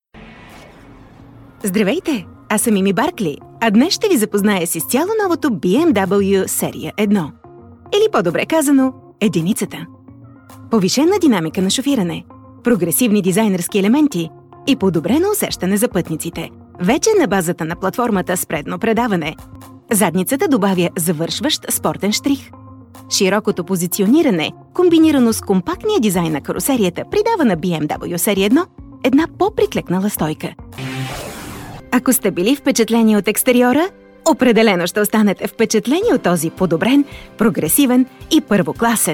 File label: Corporate Explainer Video Bulgarian; BMW